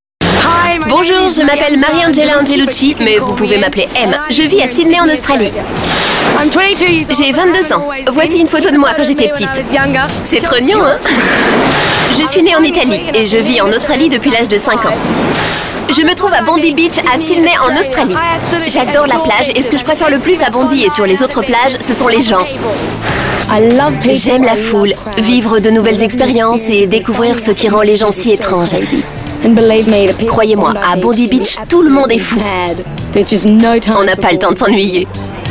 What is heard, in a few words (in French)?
Voce over Dynamique